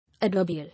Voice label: "Adverbial", English